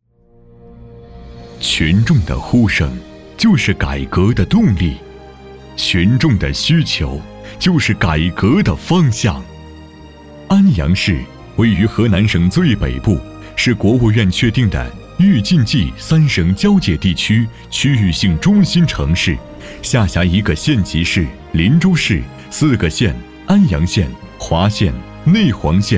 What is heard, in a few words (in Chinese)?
男 宣传